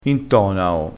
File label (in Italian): "intonao", crespinese, vocabolario dialettale